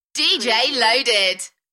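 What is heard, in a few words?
UK female, Enthusiastic, DJ vocals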